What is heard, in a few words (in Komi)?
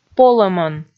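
"полӧмӧн", Komi, female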